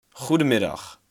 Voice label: "Goedemiddag", Dutch

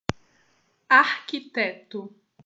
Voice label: "arquiteto", portuguese

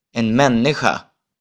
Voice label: "en människa", Swedish

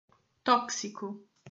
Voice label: "tóxico", portuguese

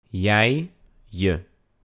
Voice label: "Jij", "Je", Dutch

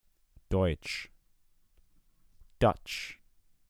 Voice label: deutsch Dutch

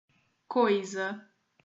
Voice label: "coisa", Portuguese

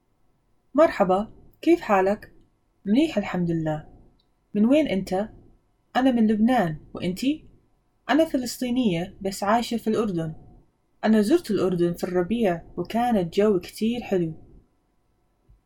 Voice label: convo one Levantine

